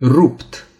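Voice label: "tear", Romanian; gcompris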